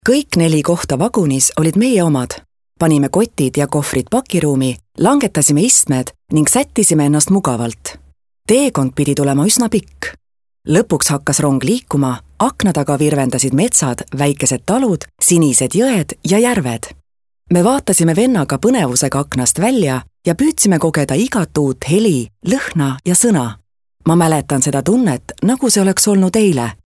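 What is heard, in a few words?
Estonian female Casual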